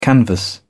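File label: "canvas", British English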